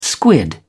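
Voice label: "squid", British English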